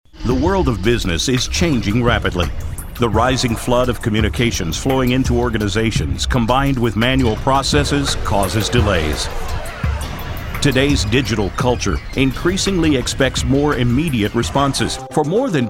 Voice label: Narrations